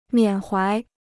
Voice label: "缅怀", Chinese